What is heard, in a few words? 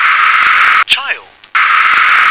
"child", English